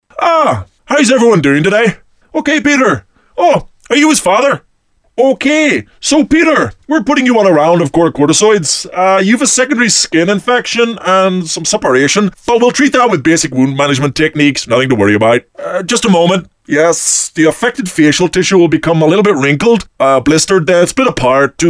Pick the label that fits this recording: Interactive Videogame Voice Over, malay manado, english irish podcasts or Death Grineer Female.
english irish podcasts